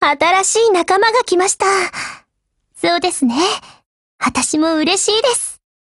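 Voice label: BUILD OVER, Japanese; GF Alarm; AR70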